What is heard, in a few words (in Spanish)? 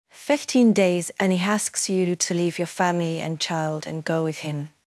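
Voice Acting es F, Spanish, female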